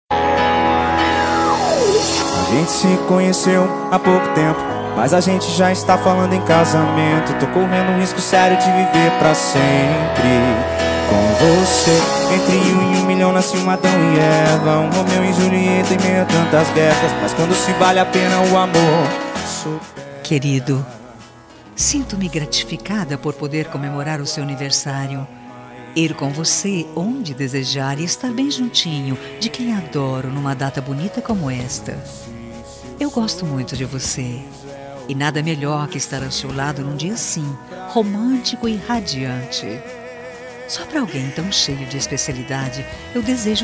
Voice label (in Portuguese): ANIVERSARIO ESPOSO, telemensagem